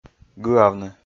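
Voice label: "гыавны", Komi, male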